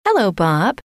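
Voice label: hello, female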